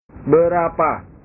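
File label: "berapa", Indonesian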